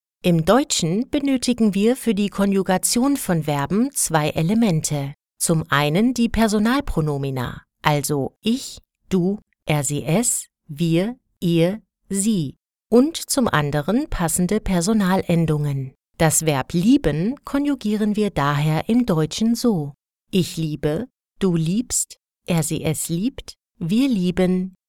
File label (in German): ELearning Sprachkurs